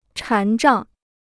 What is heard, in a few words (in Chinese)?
"禅杖", Chinese